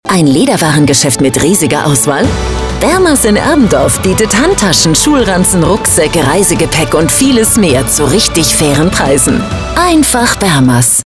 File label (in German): radiospot